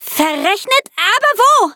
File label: defeat, de; cookie run